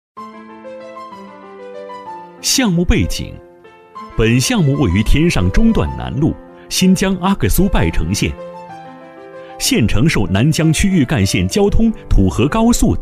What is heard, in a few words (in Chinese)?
男 项目概况; 阿克苏拜城